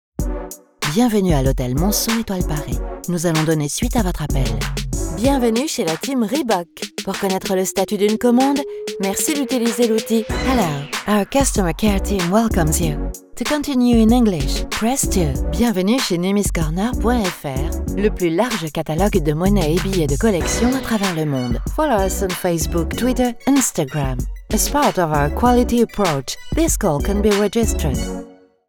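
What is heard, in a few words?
French, female, IVR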